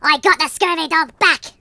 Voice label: Revenge, Pirate, voices; Hedgewars